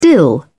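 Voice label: "dill", British English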